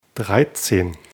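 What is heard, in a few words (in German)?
"dreizehn", German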